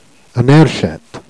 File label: "erchette", Jèrriais